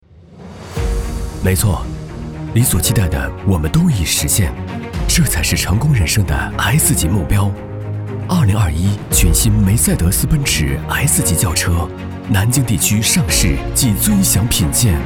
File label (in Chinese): 广告 汽车 优雅, 男; 奔驰